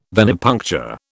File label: "venipuncture", British